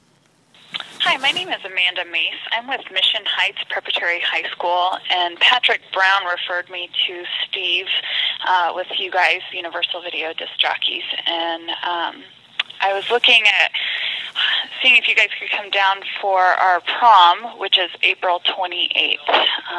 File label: voicemail